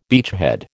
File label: "beachhead", American